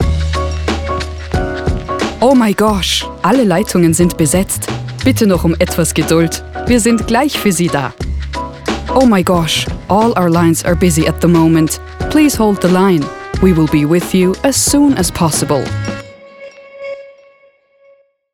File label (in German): Warteschleife